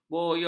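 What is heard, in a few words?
"bonyor", Malay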